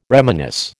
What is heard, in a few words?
"reminisce", American